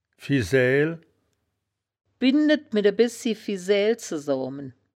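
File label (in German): "fisaeael", wittlicher; Fisääl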